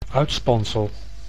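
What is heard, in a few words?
"uitspansel", Dutch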